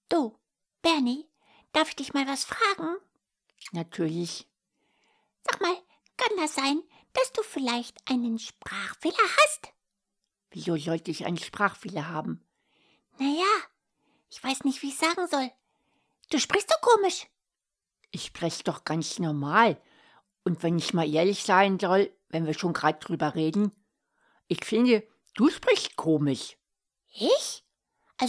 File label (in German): Trickstimmen